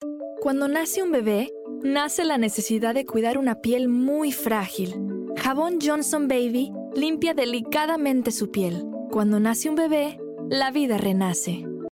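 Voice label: Latin American Spanish, Commercial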